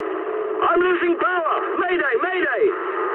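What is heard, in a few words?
English damaged engine mood high; War Thunder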